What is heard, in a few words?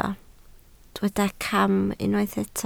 "cam", Welsh